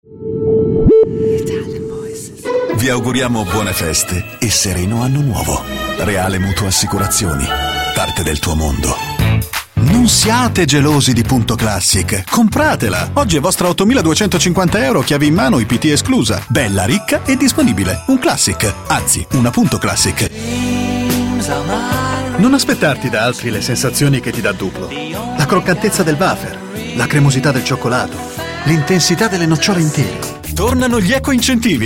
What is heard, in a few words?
Italian voices, Friendly Convincing